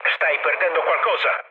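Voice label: Italian tank damaged mood low, speech; War Thunder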